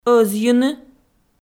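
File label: "ӧзйыны", Komi, female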